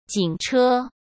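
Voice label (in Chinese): "警车", Mandarin Chinese; chinese-flashcards; hsk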